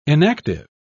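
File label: "enactive", British English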